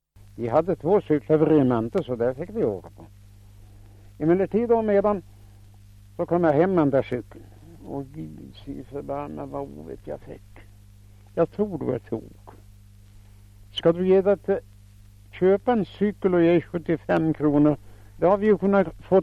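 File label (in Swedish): Fellingsbro Västmanland, dialekter, svenska sagor; Folkminnen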